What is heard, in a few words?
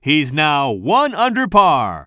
commentator